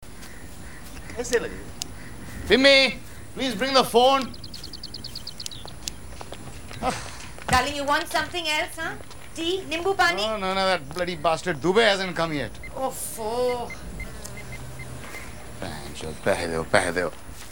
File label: Indian accent; english course; AudioEnglish